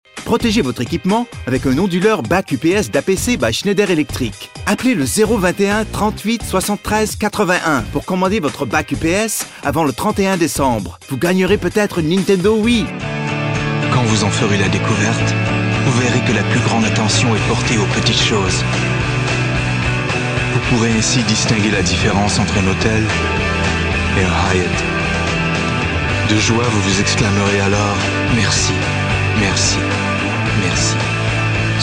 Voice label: european french